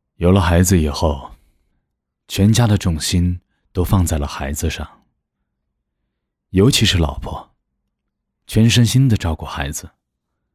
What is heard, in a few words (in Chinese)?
男 独白旁白 角色 故事